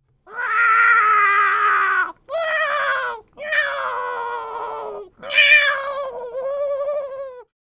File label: Male Beg